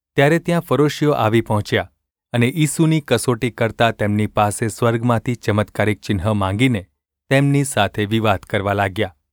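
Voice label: gu ulb mrk, Gujarati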